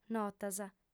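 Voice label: "notas" Sardinian, female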